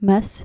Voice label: "mes", Dutch

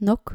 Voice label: "nok" dutch

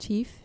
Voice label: "chief" dutch

